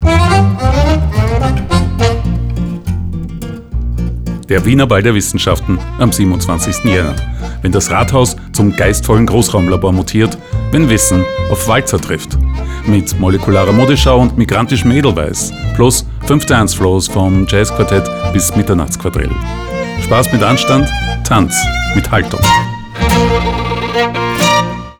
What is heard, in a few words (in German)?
radiospot